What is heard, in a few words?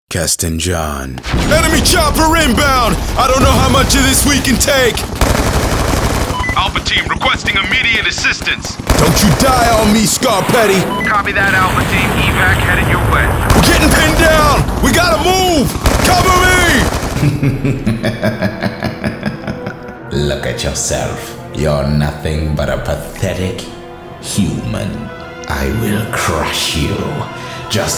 animation